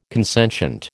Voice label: "consentient", American